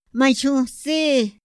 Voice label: "ma nxutsi", Otomí; Días de la semana; INPI; INALI